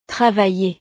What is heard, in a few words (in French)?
"travailler", frances